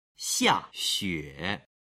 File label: "xiaxue", Chinese